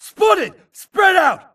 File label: grunt grenade response; imc; Titanfall 2